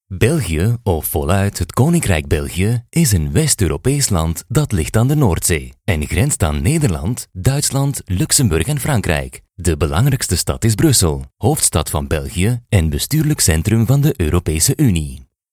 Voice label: Flemish documentaries